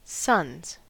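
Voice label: "suns", US English; pronunciations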